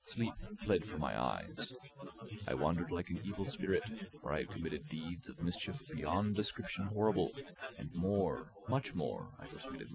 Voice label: male inst; SiSEC08; SiSEC underdetermined